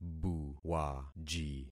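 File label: "buwaji", concatenated syllables; Affixing experiment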